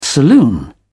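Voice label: "saloon", British English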